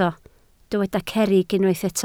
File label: "cerrig", welsh